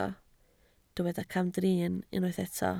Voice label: "camdrin", Welsh

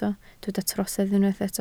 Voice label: "trosedd", Welsh

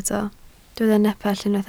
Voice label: "nepell", Welsh

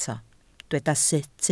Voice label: "sut", Welsh

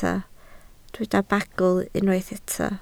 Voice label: "bagl", Welsh

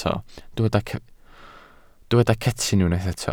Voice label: "cetyn", Welsh

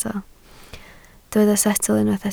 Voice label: "setl", Welsh

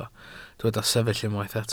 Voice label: "sefyll", welsh

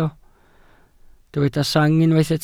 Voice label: "sang", Welsh

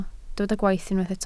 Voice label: "gwaith", Welsh

